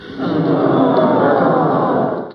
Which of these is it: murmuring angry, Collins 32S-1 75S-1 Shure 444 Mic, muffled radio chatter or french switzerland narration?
murmuring angry